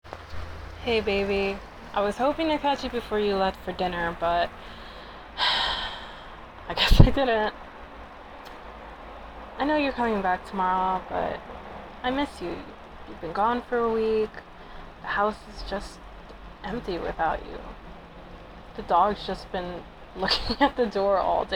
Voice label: A rambly voicemail

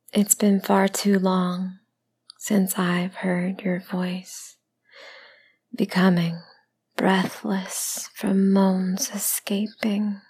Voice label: intimate, erotic poetry; Should I Call

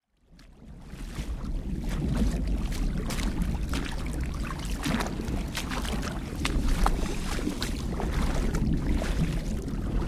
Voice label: F4M; Brainy Babe; Snared by a Siren

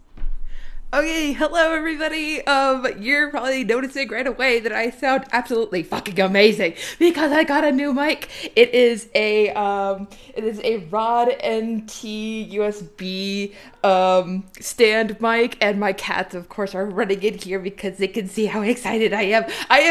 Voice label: Happy Vent

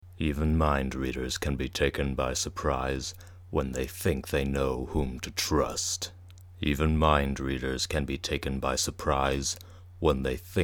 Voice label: deep voice

